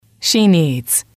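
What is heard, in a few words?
"she needs", English, female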